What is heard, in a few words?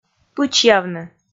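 "пычьявны", Komi, female; kpv; audio dict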